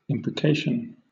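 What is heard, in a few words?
"imprecation", British English; pronunciations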